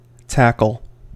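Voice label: "tackle", American English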